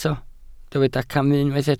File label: "camu", welsh